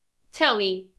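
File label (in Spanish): Voice Acting es F, Spanish, female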